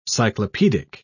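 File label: "cyclopedic", British English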